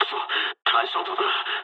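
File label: searchlite mood low, speech japanese; War Thunder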